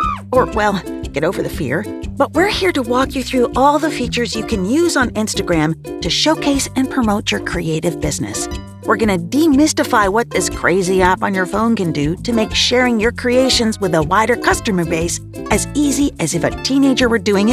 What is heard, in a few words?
E LEARNING; Voice Over Actor Demos